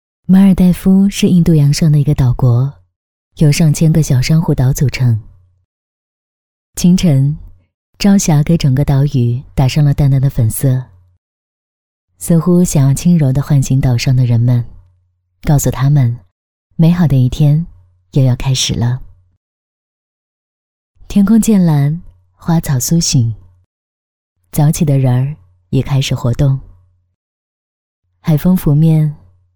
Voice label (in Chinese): 独白; 马尔代夫